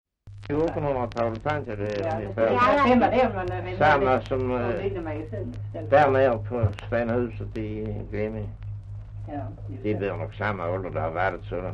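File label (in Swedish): Östra Ingelstad Skåne, dialekter; Folkminnen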